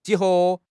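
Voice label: "ji2 he2", Mandarin Chinese; 汉语口语新时代-初级下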